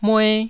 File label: "mue1", taiwanese syllables